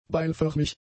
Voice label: "beilförmig", German; adjectives